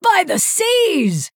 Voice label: female night elf wow